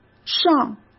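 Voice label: "上", Mandarin Chinese; hsk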